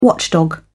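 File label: "watchdog", British English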